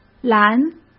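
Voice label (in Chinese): "拦", Mandarin Chinese; chinese-flashcards; hsk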